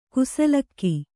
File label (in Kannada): "ಕುಸಲಕ್ಕಿ", Kannada